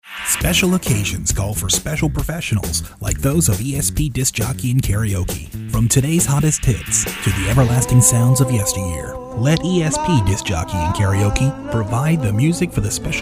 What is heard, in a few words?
30 Second Commercial